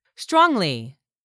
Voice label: "strongly", english; 3000 words